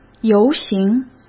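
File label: "游行", Mandarin Chinese; chinese flashcards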